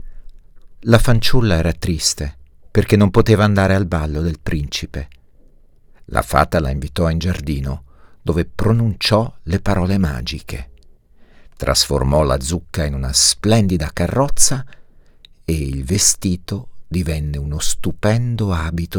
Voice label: Italian, M, Audiobook

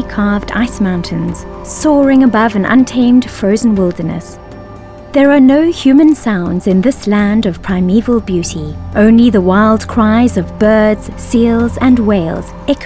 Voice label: English South Africa F Conversational; voice over; accent of south africa

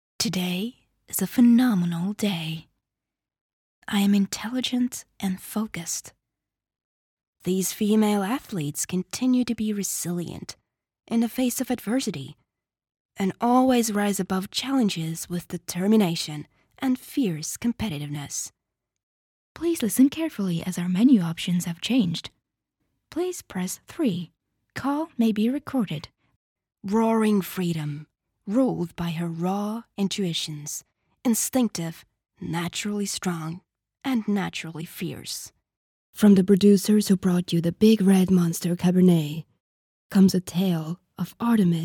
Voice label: English F Explainer, accent of estonia

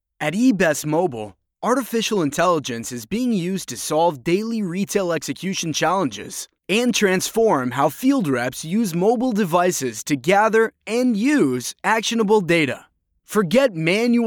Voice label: English US M Corporate; voice over; american

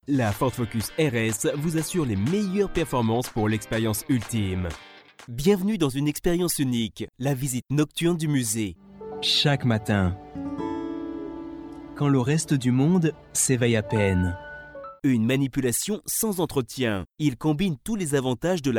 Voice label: French M Showreel; voice over

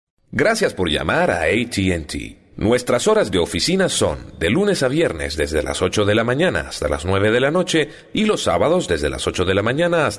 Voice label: Spanish LA M IVR

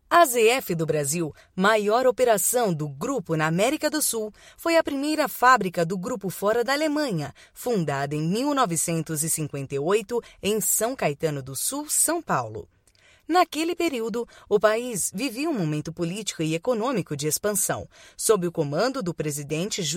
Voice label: Portuguese BRA F Corporate, brazilian portuguese; voice over

